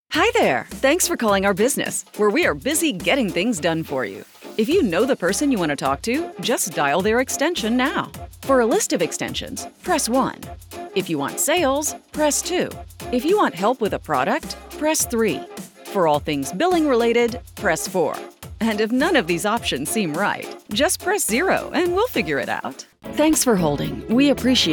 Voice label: Telephony, phone message

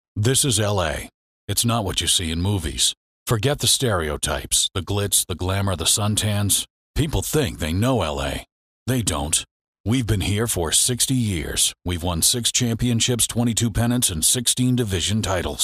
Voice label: Straight Style, en-GB

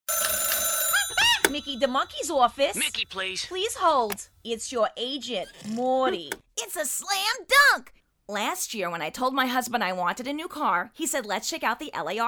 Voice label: Character

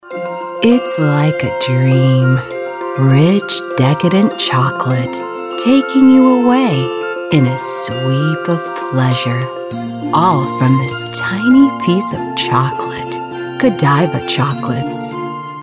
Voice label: Sultry Tantalizing

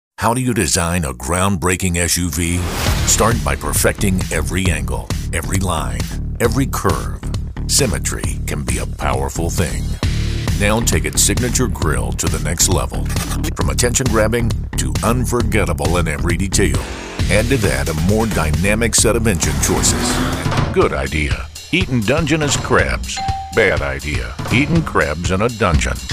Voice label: Commercial

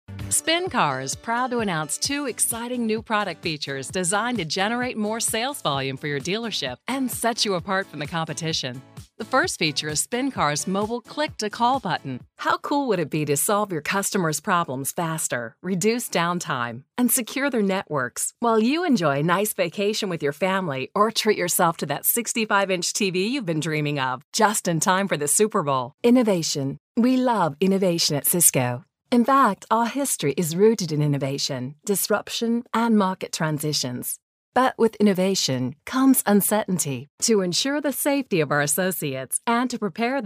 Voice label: Explainer, en-US

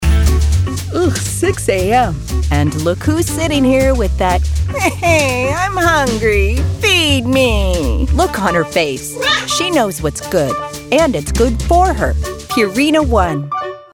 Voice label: Funny Sarcastic Friendly

